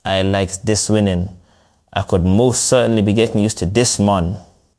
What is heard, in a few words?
happy, speech; fertig classic games